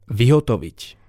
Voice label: "vyhotovit", slovencina

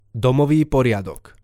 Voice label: "domovy poriadok", slovencina

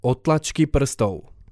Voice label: "odtlacky prstov", slovencina

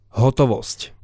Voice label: "hotovost", slovencina

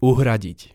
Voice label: "uhradit", slovencina

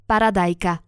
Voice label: "paradajka", slovencina

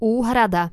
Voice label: "uhrada", slovencina

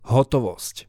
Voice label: "hotovost", slovencina

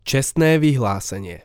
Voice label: "cestne vyhlasenie", slovencina

